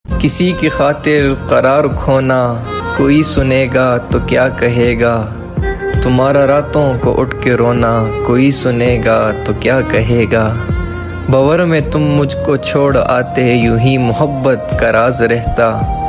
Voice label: Shayari Ringtone